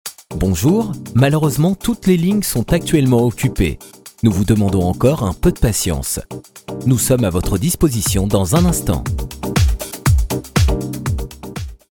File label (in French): fr